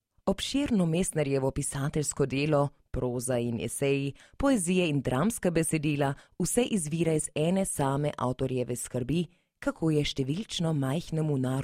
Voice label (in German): Slowenien W